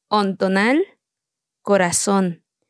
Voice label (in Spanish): Palabras en tsotsil, O'ontonal - Corazón